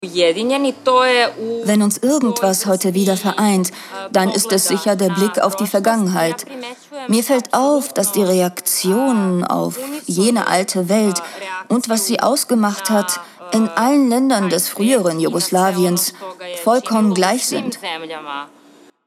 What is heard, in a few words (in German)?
Doku Sprecherin; Jugo Kultura; Rolle Ana